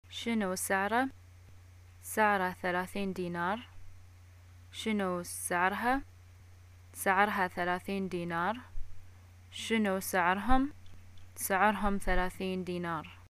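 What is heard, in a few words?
shopping how much is, Bahraini arabic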